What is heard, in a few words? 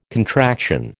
"contraction", American